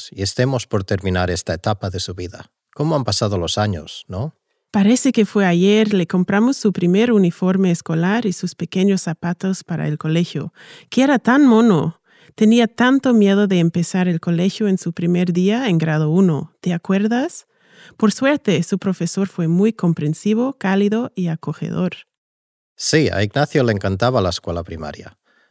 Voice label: Spanish audio stimulus